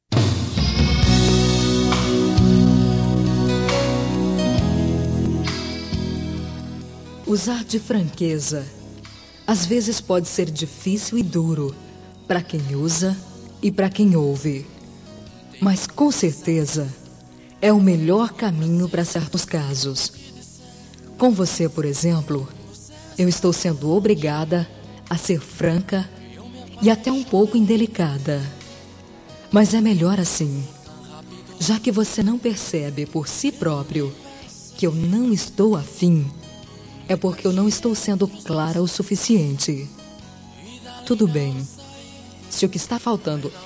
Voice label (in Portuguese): Romântica, F; Larga do Meu Pé